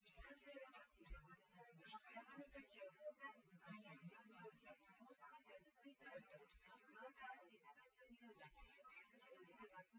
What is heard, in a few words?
female synthconv 130ms 5cm src; SiSEC08; SiSEC underdetermined